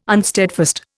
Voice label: "unsteadfast", Indian